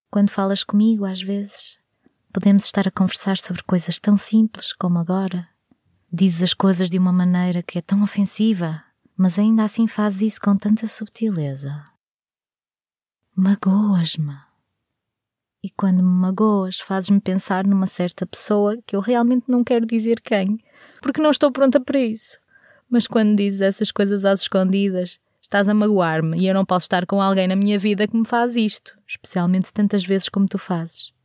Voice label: portuguese female natural